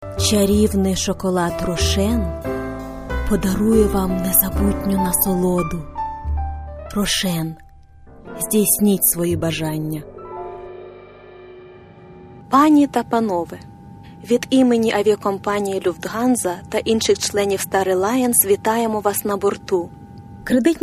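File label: Ukrainian, voiceovers other languages; foreign languages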